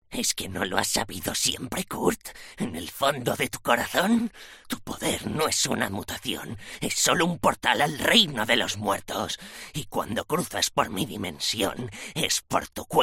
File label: MALE, videogames